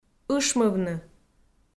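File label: "ышмывны", Komi, female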